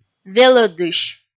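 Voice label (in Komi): "велӧдысь", Komi, female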